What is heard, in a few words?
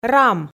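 "рам", Komi, female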